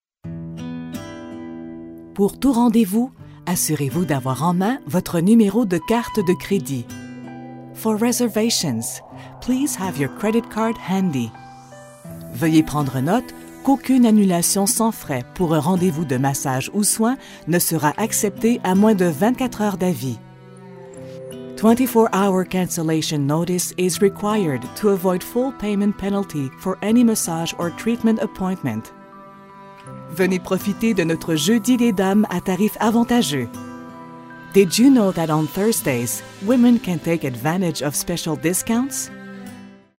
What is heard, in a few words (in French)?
attente, message d'attente telephonique; studioambiance